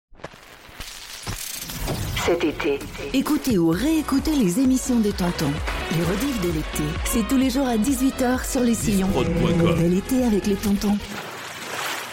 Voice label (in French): Jingle Redifs de l'ete; Les Sillons de tonton